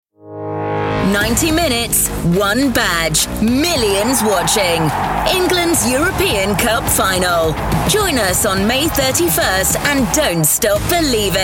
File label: funny warm read - commercials